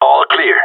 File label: clear, radio; GSG9